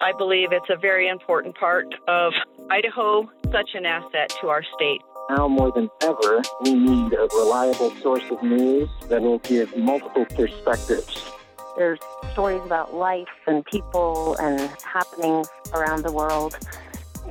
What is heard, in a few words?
Radio Listener Montage; Boise State